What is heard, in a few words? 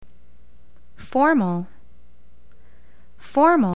formal